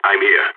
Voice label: reporting in, balkan; vo